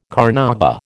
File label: "carnauba", American